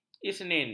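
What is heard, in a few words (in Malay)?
"isnin", Malay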